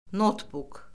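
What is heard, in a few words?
"notbuk", Azerbaijani; Orfoqrafiya Lüğəti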